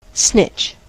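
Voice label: "snitch", US English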